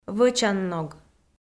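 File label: "вӧчанног", Komi, female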